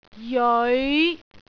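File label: "jeoi5", Cantonese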